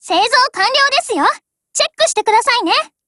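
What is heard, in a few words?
build over, voice, Japanese; GF Alarm; C96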